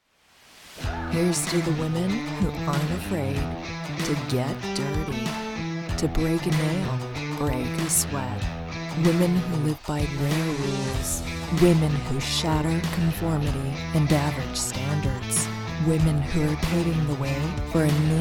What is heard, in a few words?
promo vo